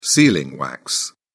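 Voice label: "sealing wax", British English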